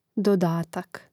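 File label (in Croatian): "dodatak", Hrvatski; mreznik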